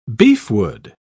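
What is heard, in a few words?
"beefwood", English, British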